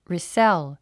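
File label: "resell", English; 発音 rìsél リィセェゥ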